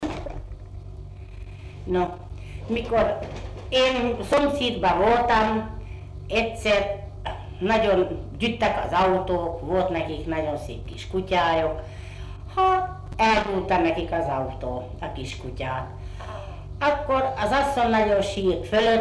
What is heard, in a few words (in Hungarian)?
nyelvjárás gyűjtések